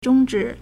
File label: "zhong1 zhi3", Chinese